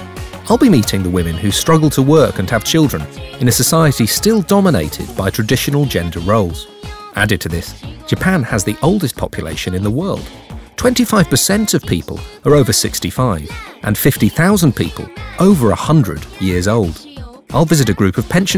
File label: japanese Doc